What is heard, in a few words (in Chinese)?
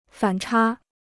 "反差", Chinese